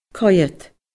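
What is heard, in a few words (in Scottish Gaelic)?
"coimhead", Scottish Gaelic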